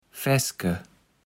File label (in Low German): "Fässke", Platt